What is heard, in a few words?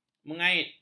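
"mengait", Malay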